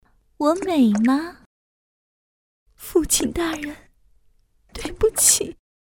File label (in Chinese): 女 游戏角色; 貂蝉